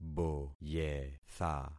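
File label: "boyetha", concatenated syllables; Affixing experiment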